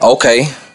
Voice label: "okay", expletives